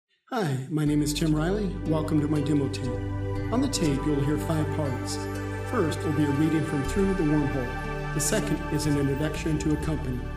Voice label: en male sample, english